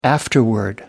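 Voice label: "afterward", British English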